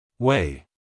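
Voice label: "way", American English, male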